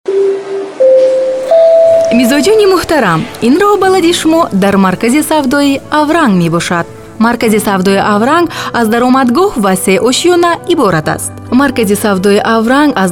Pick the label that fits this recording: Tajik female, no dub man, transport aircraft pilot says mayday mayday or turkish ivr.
Tajik female